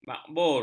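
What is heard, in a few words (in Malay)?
"makbul", Malay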